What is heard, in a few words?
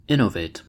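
"innovate", American English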